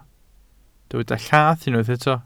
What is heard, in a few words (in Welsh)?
"llath", Welsh